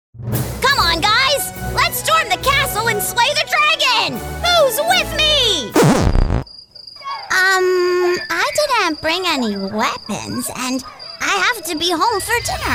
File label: CHARACTER